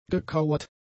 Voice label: "gekauert", German; adjectives